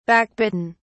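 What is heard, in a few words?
"backbitten", British English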